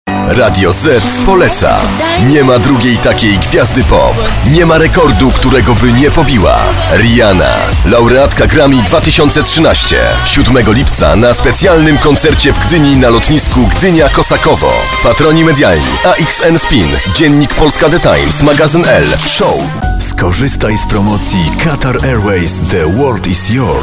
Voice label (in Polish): REKLAMA